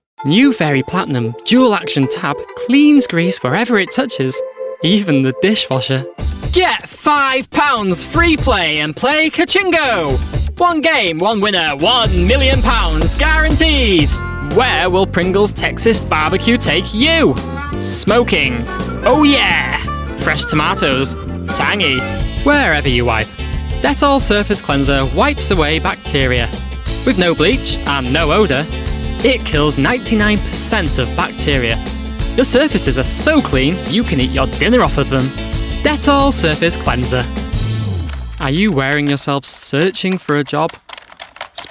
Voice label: commercial VOICEREEL